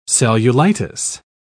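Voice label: "cellulitis", British English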